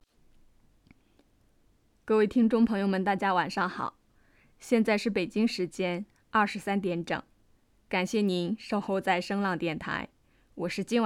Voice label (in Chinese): 情感电台; 素人音